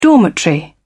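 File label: "dormitory", British English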